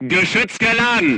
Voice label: voice message charged, crew tanks german